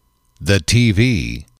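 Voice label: "the tv", English, male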